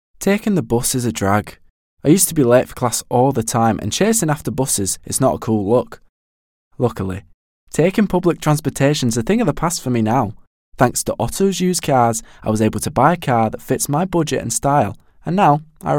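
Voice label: English UK, commercial, York; GoLocalise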